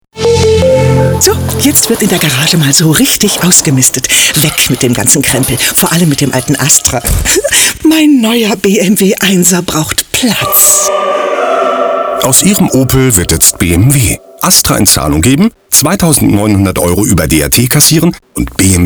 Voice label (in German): Automotive Radiowerbung